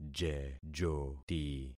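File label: "jejoti", concatenated syllables; Affixing experiment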